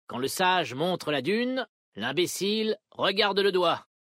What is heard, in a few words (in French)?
Homme Blague; Vulpérins; Dune